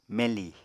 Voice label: "meñli", Apatani